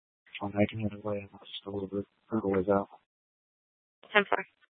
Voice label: Tempe PD, scanner; Rgnl Wireless Co-op (RWC)

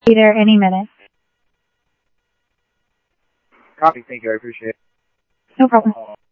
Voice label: Phoenix PD, scanner audio

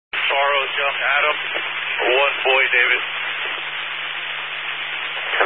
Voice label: Highway Patrol, Phoenix Metro West Area, scanner

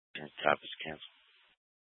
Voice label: Fire Departments Mesa Simulcast; TOPAZ

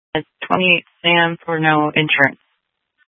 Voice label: Sheriffs Office, scanner

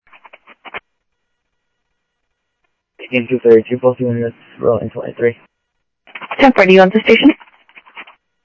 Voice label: Sheriff-WestCnty, scanner audio

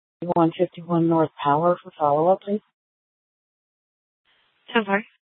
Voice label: Superstition Dispatch, Mesa PD

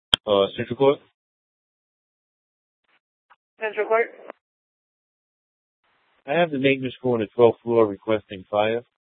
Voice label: Court Security Dispatch, scanner

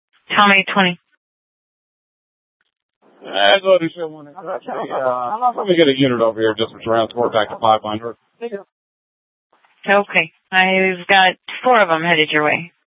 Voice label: Sheriff, scanner P25

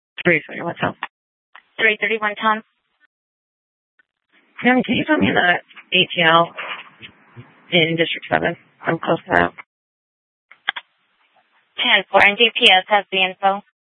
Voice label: Sheriff, scanner; Maricopa County (P25) - Thompson Peak; Shrf Southeast

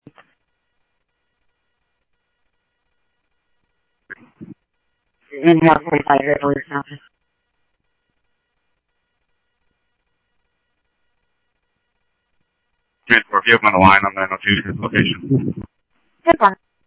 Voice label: Police, scanner audio trunk; H-Scottsdale

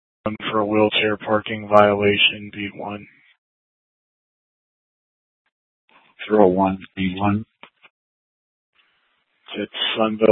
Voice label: PD Dispatch

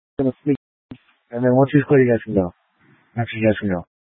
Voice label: Light Rail Emergency, scanner

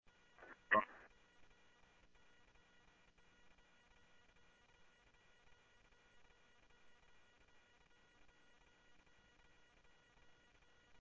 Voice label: Police, scanner; H-Scottsdale; ScottsdalePolice

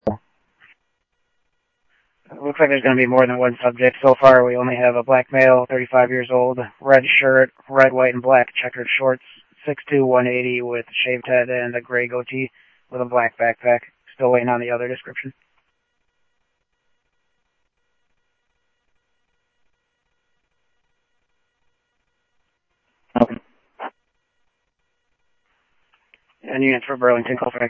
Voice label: Mesa Simulcast, MESA PD, scanner audio; 2 Centrl; MesaPoliceDep

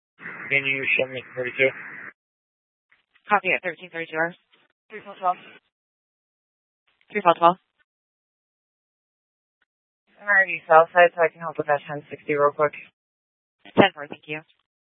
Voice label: Tempe PD, scanner; A04 South

